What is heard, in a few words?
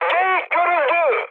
enemy detected, mood high, speech tur